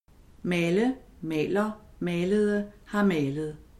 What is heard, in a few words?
male